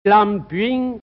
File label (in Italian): "lanpyin", genovese; laŋ'pHiN/ (lampioni)